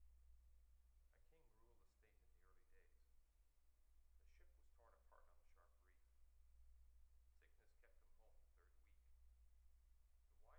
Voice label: male voice; active noise cancellation (ANC)